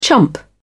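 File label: "chump", British English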